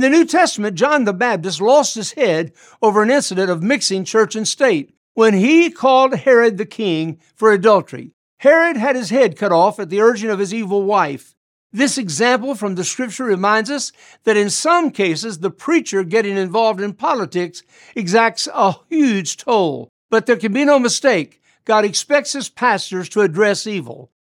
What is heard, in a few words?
Radio Spot Political Issues